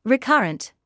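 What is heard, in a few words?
"recurrent", British English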